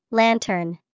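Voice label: lantern, English vocabulary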